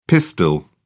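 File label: "pistil", British English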